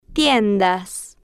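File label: "tien-das", Spanish, female, slow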